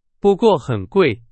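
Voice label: Chinese, example sentence; hsk2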